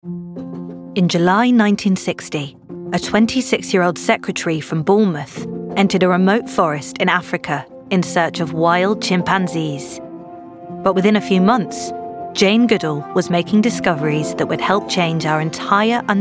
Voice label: documentary; Jane Goodall

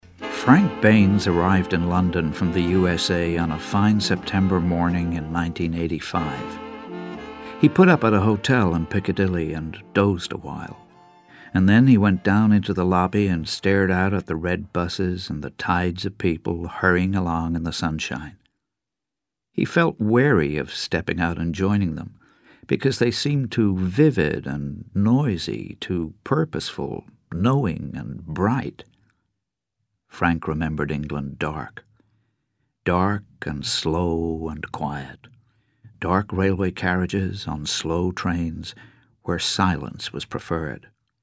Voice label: US accent

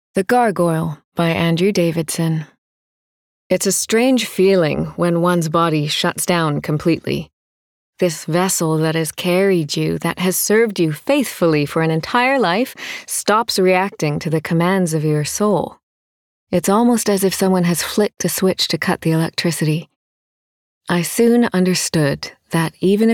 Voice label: Audiobook; The Gargoyle

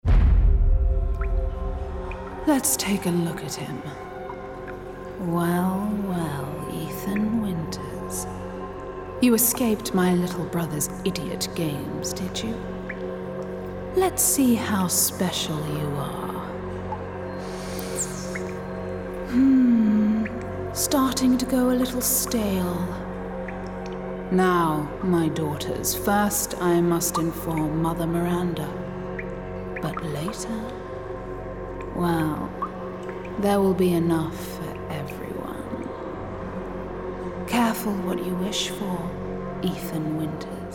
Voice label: Gaming Evil Lady

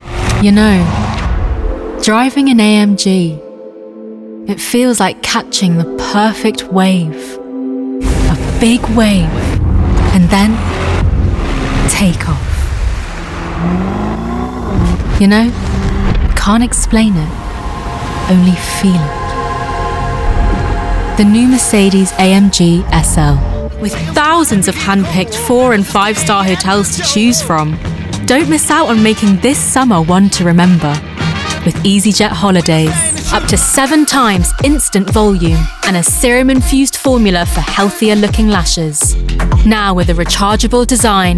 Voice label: Commerical Reel; COMMERCIAL REEL

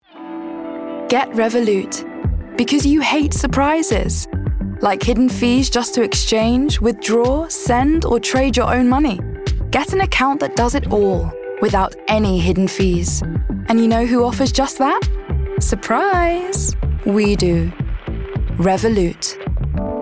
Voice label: Ad; Revolut

